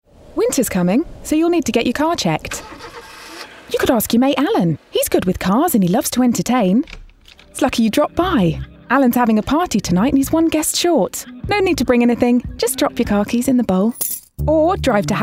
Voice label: COMMERCIAL; Halfords